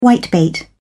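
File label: "whitebait", British English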